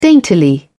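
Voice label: "daintily", British English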